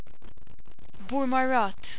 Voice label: burmarrad, Maltesisch